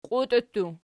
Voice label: "qiutii", Archi

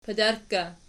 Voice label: "padarkka", Archi

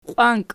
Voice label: "qiwanki", Archi

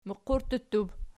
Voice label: "muqurttutub", Archi

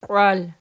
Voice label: "qiwal", Archi; qiwail

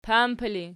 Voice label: "pampi", Archi

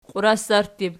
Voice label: "qiurasarttut", Archi

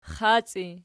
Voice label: xaci nom, archi

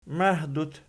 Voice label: "mahdut", Archi

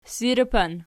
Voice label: "sirpan", Archi

